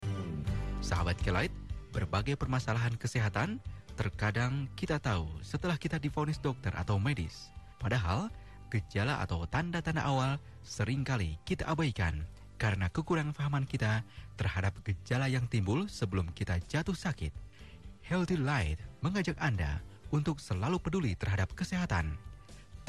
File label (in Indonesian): promo acara; healthy lite